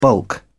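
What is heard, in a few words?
"bulk", British English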